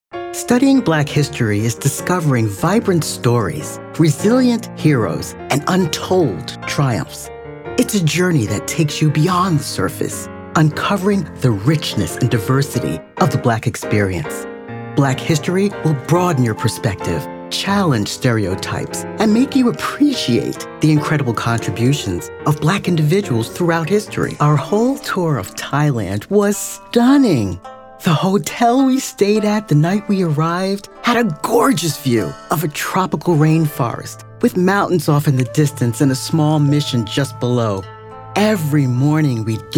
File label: Narrative